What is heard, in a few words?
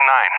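"9", English, USA; Digits; DCS; Allied Flight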